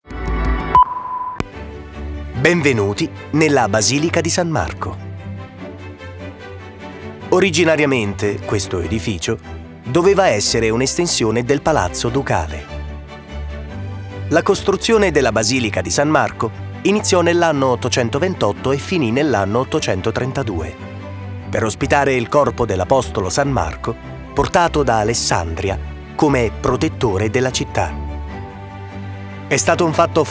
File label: audioguide